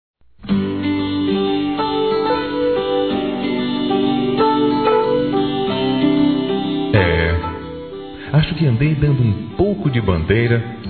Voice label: Paquera Masculino; Telemensagens 2017

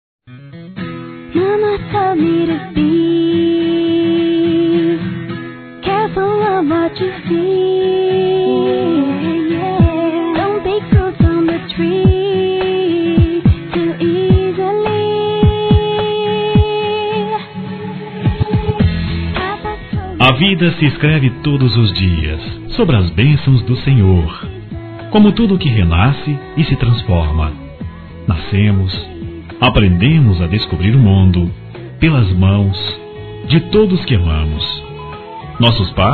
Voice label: Aniversário Adolescente Masculino; Aniversários; ANIV. DE ADOLESCENTE; Telemensagens

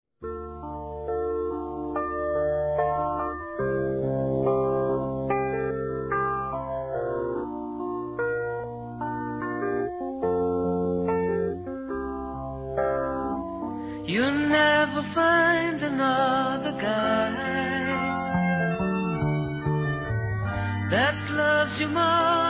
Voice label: Aniversário Amizade Feminino, Telemensagens; Aniversários; Aniversário de Amigos

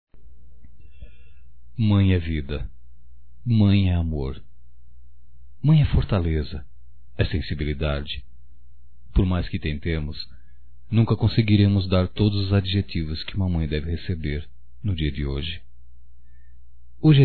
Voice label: Dia das Mães HOMENAGEM (M), masc; Homenagens; Telemensagens 2017

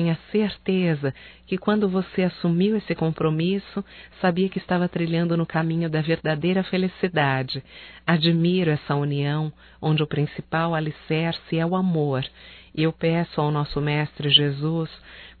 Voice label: ANIV CASAMENTO AMIGA (F); Telemensagens 2017; Casamentos

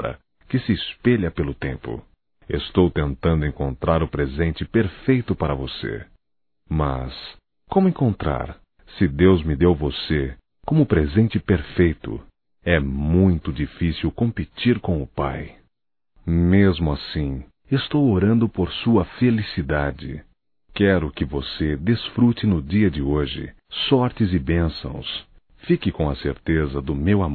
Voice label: Aniversário Romântico Masculino; Aniversarios; Telemensagens 2017

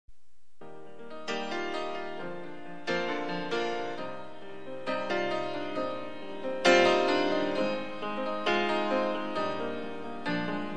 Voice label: AMOR NAO TEM IDADE MASC, Telemensagens; Telemensagens 2017

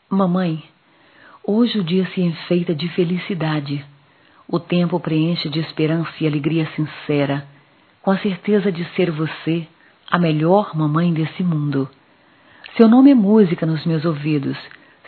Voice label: Mãe evangelica, fem; Dia das Maes; Telemensagens 2017

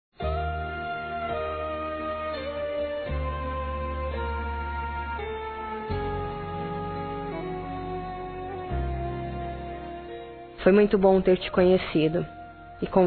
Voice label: Neutro Feminino; Dia Dos namorados; Telemensagens 2017

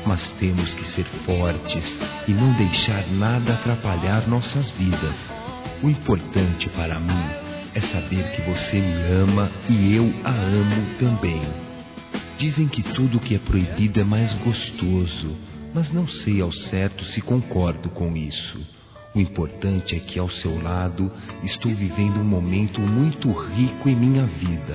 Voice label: Telemensagens Amor Masc; Telemensagens 2017

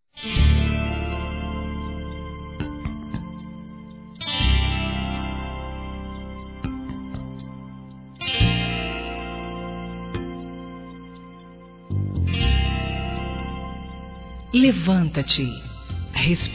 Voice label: EVANGÉLICO GERAL, Gospel, fem; Telemensagens; Telemensagens 2017

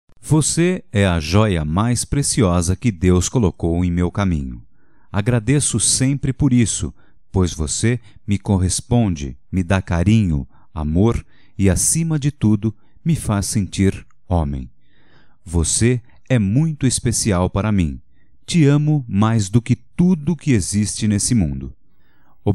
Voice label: Te Amo Masculino, Só Voz; Telemensagens; Telemensagens 2017

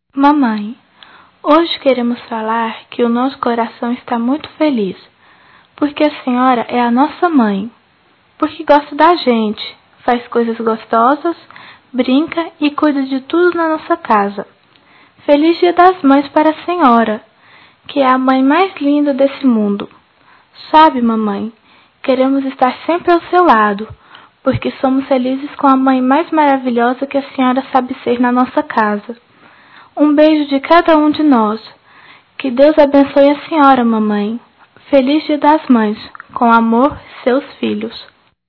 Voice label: Mãe infantil plural f, Telemensagens; Dia das Maes; Telemensagens 2017

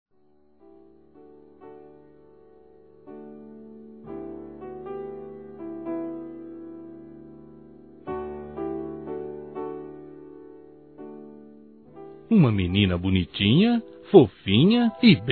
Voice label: Sobrinha Masculino, Telemensagens Dia das Mães; Telemensagens 2017

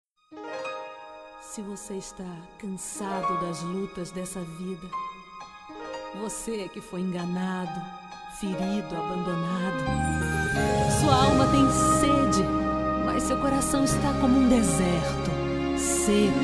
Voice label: Otimismo Filho Evangelica Feminino; Temas Evangelicos

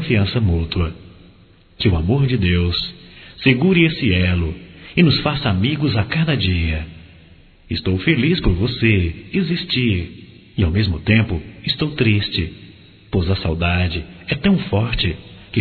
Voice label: Amizade Geral Masculino, Só Voz; Telemensagens; Telemensagens 2017

